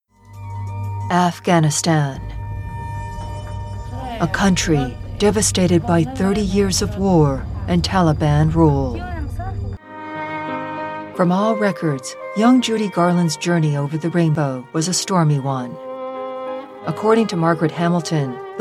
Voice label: Documentary Narration

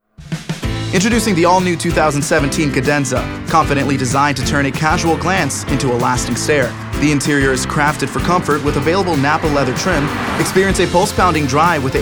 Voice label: New Car - English

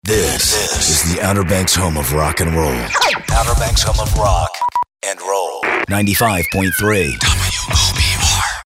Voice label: imaging

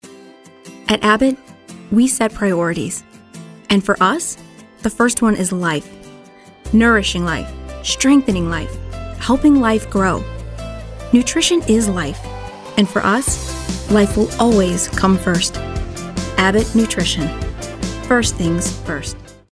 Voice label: warm

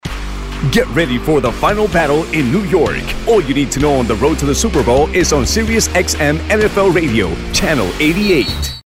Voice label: Radio; NFL

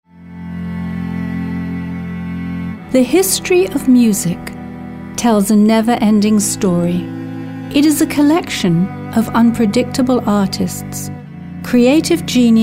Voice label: Storyteller

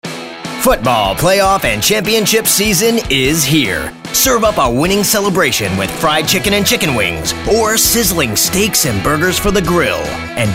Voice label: Raspy

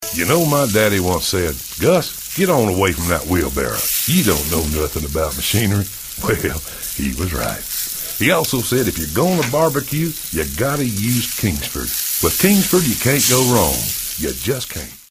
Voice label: Folksy; Voice123

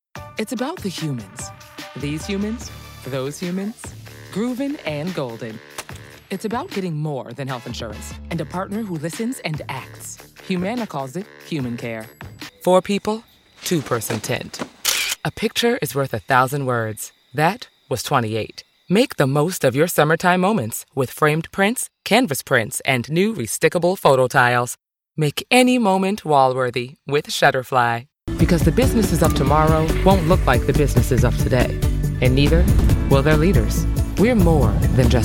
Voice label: Commercial

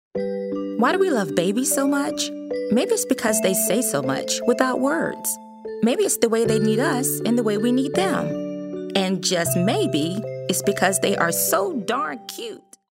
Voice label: friendly genuine

